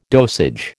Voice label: "dosage", American